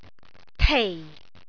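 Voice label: "tei4", Cantonese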